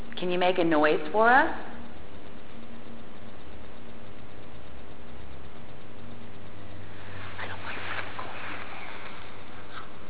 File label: female voice